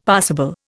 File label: "passible", Indian; Dictionary